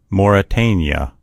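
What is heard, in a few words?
"mauritania", English; gcompris; geography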